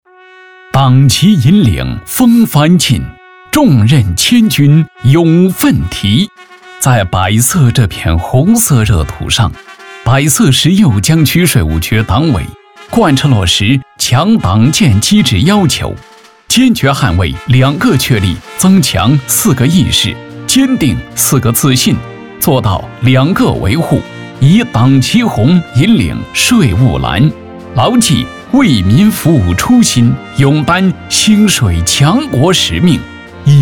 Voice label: 党政汇报沉稳有力; 税务局党建

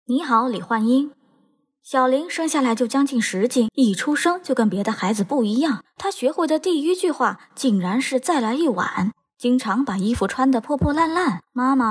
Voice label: 影视解说配音

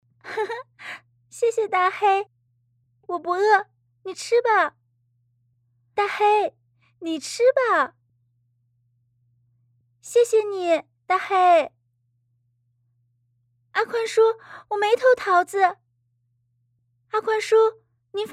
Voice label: 童声角色音非夹子

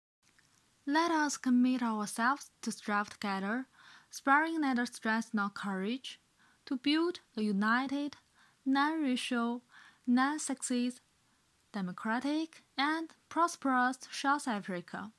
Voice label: 英文段落

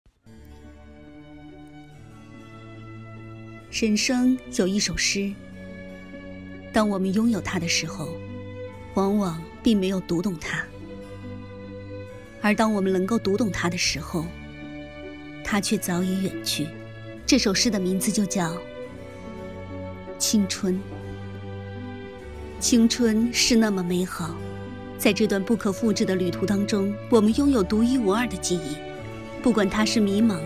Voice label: 朗读者; 青春

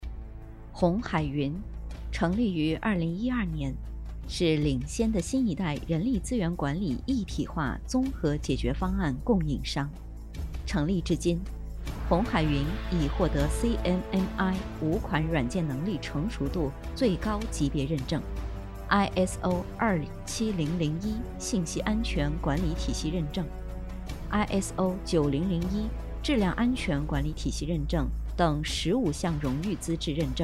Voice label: 宣传片

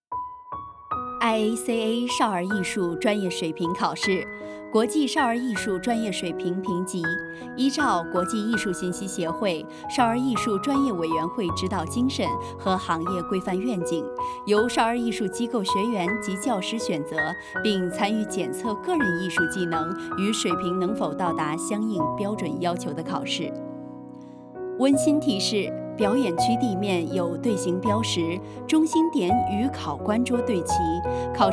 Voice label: 考试简介及考场温馨提示, 柔美、温和、治愈, 青年, 普通话, 女

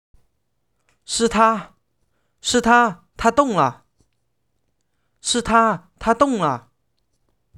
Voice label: 采访录音

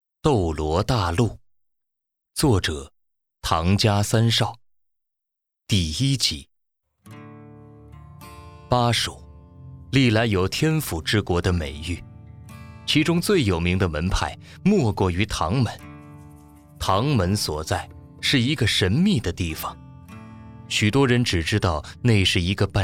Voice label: 有声小说玄幻

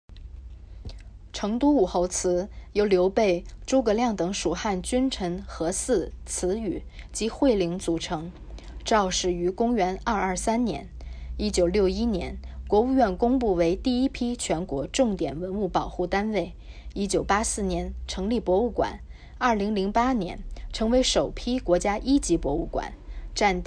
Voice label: 中英双语; 中英双语武侯祠

